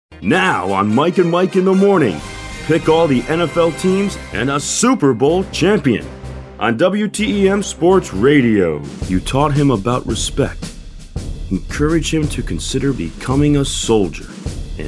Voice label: Commercial